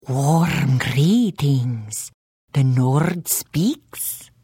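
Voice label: Hello, Khajiit female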